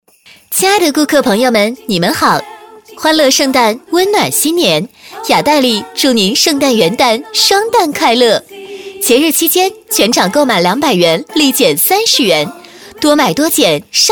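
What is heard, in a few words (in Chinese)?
【激情促销】内衣生活馆; 雅黛丽内衣生活馆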